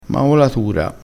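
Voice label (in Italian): "maolatura", dialettale crespinese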